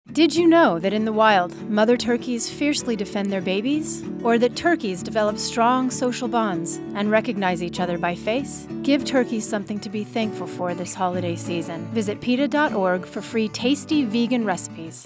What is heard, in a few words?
thanksgiving turkey rescue radio PSA